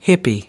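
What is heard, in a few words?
"hippie", American English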